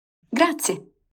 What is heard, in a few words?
thank you italian; christmas turkey dash